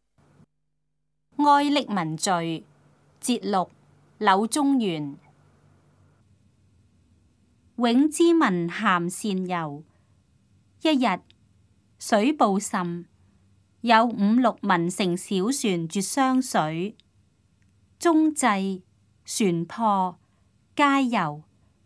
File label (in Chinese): cantonese; 哀溺文序（節錄）; 粵語